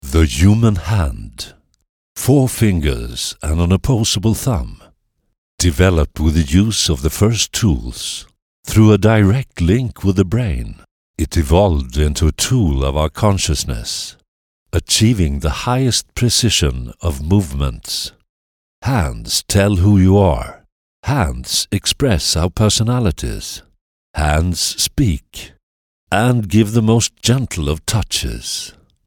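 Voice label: Swedish accented English; GoLocalise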